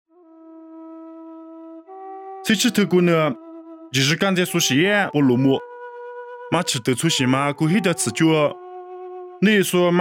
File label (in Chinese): 凉山彝语; 地中海马其顿; nuosu